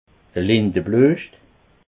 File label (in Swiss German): "lindebluescht", alsace